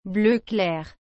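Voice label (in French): "bleu clair", French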